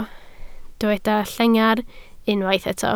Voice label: "llengar", Welsh